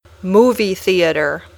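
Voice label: "movie theater", English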